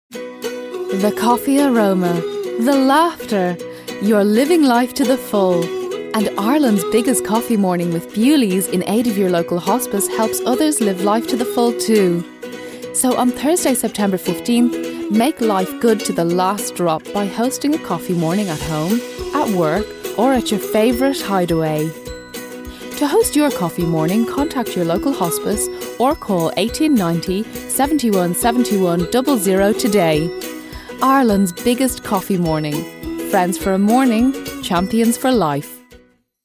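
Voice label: English, Commercial, Irish; GoLocalise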